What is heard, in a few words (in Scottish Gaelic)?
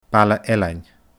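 "Baile Ailein", Scottish Gaelic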